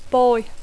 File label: "boy", English; noi; xaval; xiquet